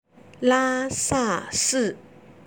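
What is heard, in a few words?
"lasashi", Chinese; 拉萨市; Lā shà shì; 西藏自治区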